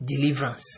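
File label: "deliverance", Nigerian Pidgin, male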